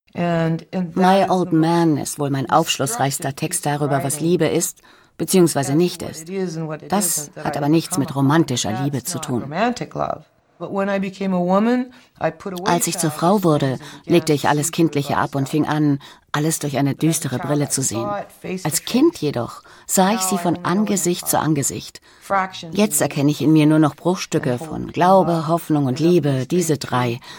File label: Voice Over; ARTE; Hippie Folk Goddess